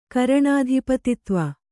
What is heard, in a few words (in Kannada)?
"ಕರಣಾಧಿಪತಿತ್ವ", Kannada